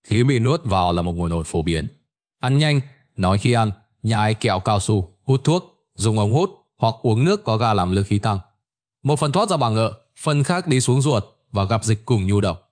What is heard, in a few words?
narration